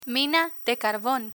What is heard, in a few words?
mina de carbon, Spanish; learn spanish